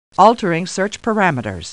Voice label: Star Trek Computer Voice; StarTrekLCAR